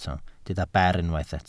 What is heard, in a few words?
"ber", Welsh